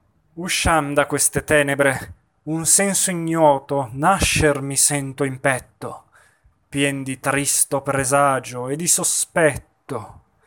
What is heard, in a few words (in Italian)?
espressivo; Macbeth; Come dal ciel precipita